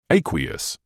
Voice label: "aqueous", medical english uk; AY-kwee-uhss; ˈeɪ.kwiː.əs